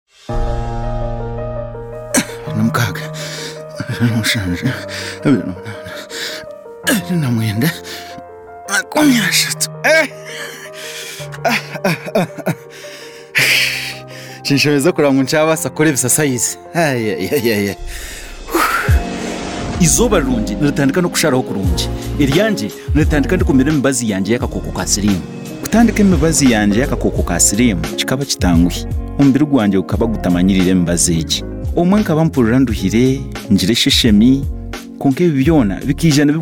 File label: Time Up HIV Spot New on Treatment Runyankore Rukiga